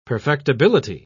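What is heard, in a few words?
"perfectibility", British English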